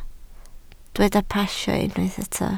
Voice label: "pasio", Welsh